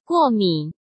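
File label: "过敏", Mandarin Chinese; hsk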